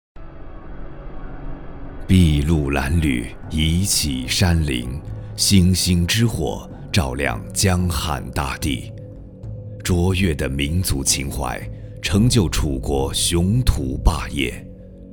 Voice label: 男 纪录片 沉稳; 楚国八百年

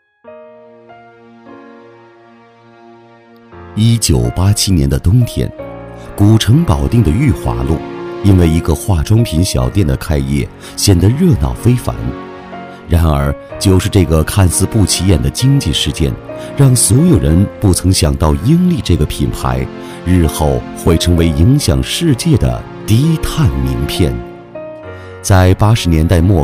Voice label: 男 抒情 讲述, 低沉磁性; 保定英利

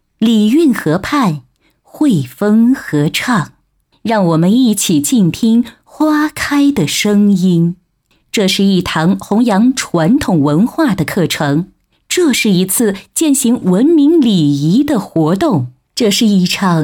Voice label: 女 专题 文化大气温和

